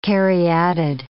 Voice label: "caryatid", English

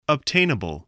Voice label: "obtainable", voice, spelling

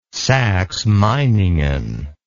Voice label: "saxe meiningen", English

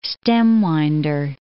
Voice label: "stem-winder", English

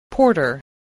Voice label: "porter", English

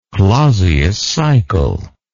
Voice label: "clausius cycle", English

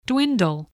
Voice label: "dwindle", spelling

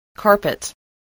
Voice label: "carpet", spelling

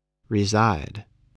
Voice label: "reside", English

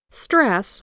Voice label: "stress", spelling

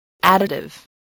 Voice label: "additive", English voice

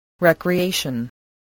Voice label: "recreation", voice, english

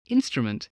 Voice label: "instrument", English

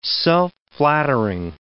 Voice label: "self-flattering", English

